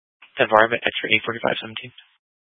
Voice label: Phx PD Dispatch, scanner; C- Chandler

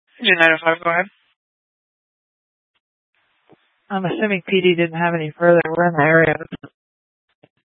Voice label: Fire Dispatch

